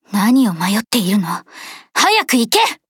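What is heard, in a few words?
start, Japanese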